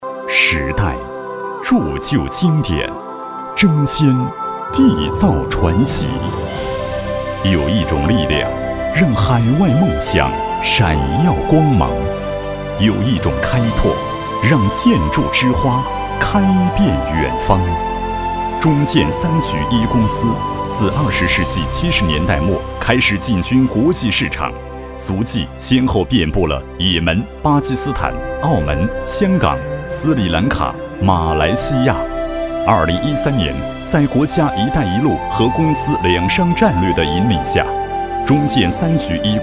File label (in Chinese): 男 专题; 中建一局